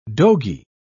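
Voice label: "dogy", British English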